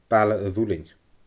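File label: "Baile a Mhuilinn", Scottish Gaelic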